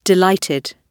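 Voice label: "delighted", British English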